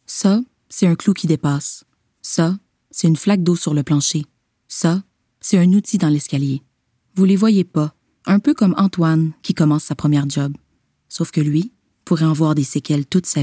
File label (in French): Publicité; CNESST